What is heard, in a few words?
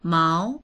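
"mao2", Mandarin Chinese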